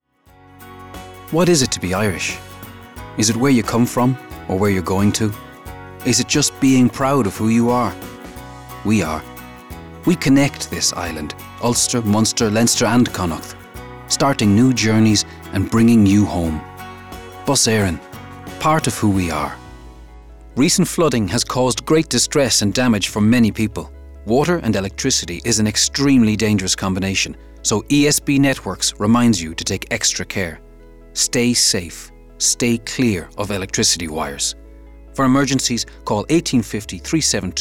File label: Commercial